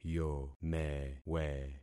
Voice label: "yomewe", concat syllables; Affixing experiment

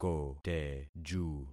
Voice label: "koteju", concat syllables; Affixing experiment

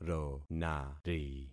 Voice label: "ronari", concat syllables; Affixing experiment

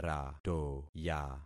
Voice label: "ratoya", concat syllables; Affixing experiment

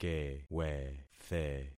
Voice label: "kewethe", concat syllables; Affixing experiment

